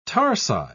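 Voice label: "tarsi", British English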